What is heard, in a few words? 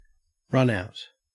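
"runout", Australian English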